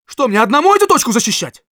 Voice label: help me defend, ru

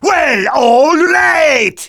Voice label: Demoman positive vocalization

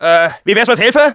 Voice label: help me, de; Scout

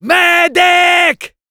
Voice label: medic, fr; "MEEEDIIIC !"

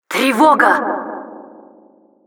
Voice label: Announcer alert, ru

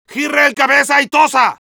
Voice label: medic taunts, es

